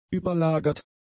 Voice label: "überlagert", German; adjectives

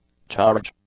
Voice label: "charge", English; nouns

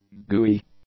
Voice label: "gooey", english; adjectives

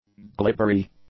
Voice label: "glibbery", english; adjectives

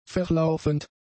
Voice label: "verlaufend", German; adjectives

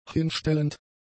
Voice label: "hinstellend", German; adjectives

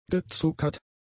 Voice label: "gezuckert", German; adjectives

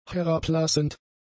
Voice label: "herablassend", German; adjectives

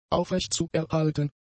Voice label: "aufrechtzuerhalten", German; adjectives

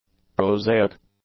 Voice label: "prosaic", english; adjectives

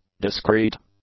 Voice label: "discreet", English; adjectives

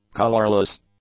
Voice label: "colorless", English; adjectives

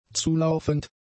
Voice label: "zulaufend", German; adjectives

